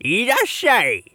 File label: shop oldman, voice